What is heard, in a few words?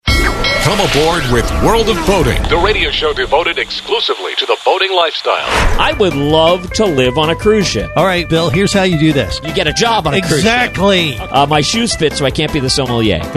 Promo